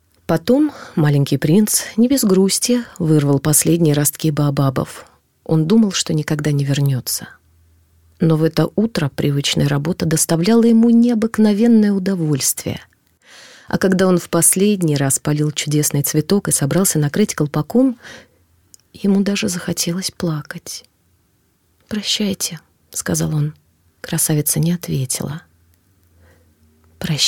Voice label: rus